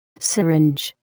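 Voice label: "syringe", English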